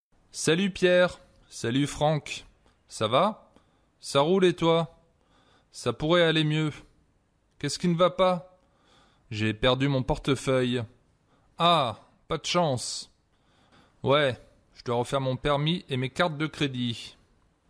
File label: Conversation, French